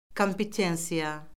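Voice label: "kompetensiya", Azerbaijani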